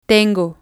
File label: "tengo", Spanish